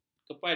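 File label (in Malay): "kepada", Malay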